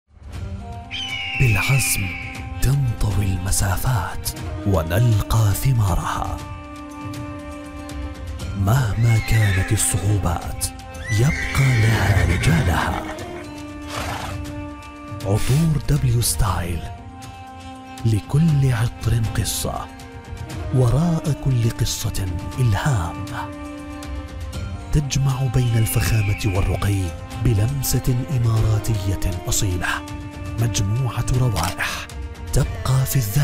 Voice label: MSA Saudi; msa voiceover